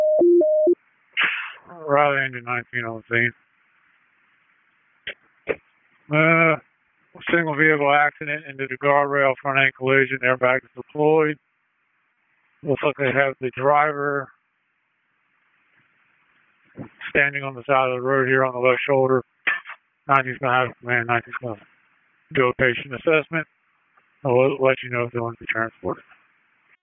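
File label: Public Safety Tactical, p25; wake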